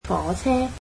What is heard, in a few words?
"train", Cantonese